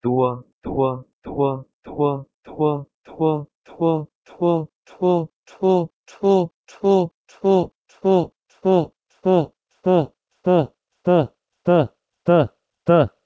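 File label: Proto-Indo-European tua to Pashto teh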